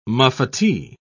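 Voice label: "muffetee", British English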